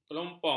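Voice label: "kelompong", Malay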